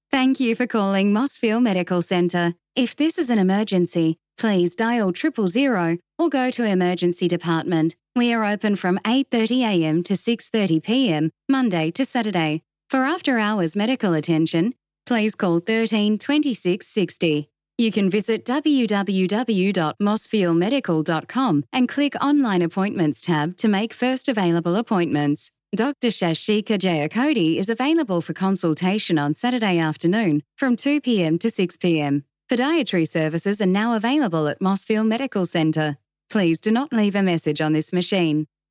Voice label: Voicemail Greeting; Mosfield

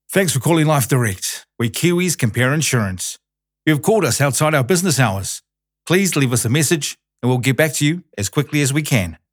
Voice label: In Office Closed, professional voicemail greeting; Life Direct

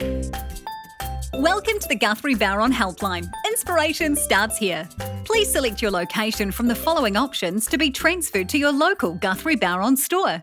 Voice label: Phone VO; Guthrie Bowron

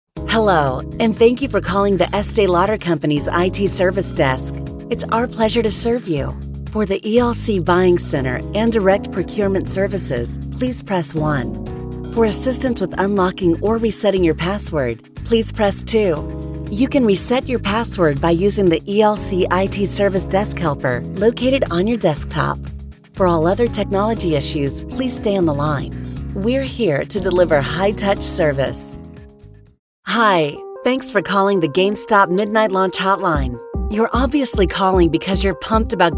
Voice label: US PHONE

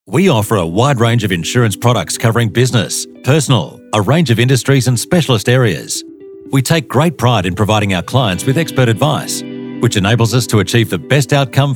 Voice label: AU PHONE

